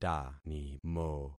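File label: "tanimo", concatenated syllables; Affixing experiment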